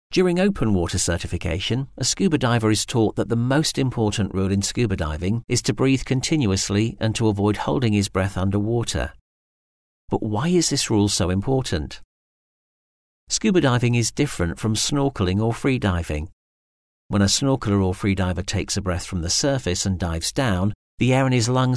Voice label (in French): E Learning Voix off; demo voix off; poulayot studios